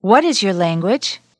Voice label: what is your language female; questions